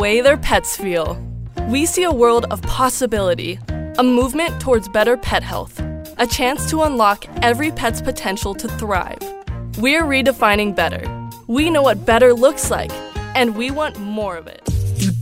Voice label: Showcase Demo, has a young-adult sound that's very real and refreshing!